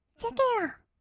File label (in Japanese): "チェケラ", kawaii voice; 音ゲー